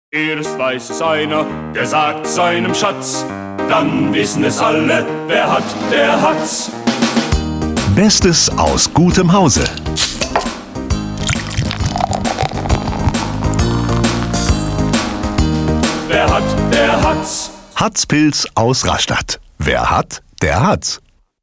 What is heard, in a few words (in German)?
Werbung; Hatz Werbespot 1